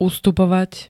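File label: "ustupovať", Slovak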